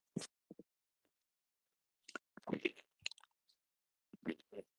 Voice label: voice message